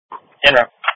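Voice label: Police Patrol Dispatch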